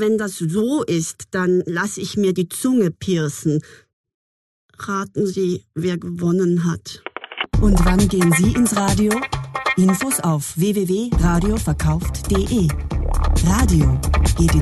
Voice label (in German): Radiowerbung